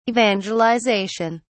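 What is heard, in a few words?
"evangelisation", British English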